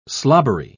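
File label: "slobbery", British English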